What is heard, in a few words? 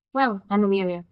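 Voice Acting es, female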